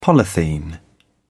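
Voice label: "polythene", British English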